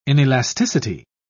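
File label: "inelasticity", British English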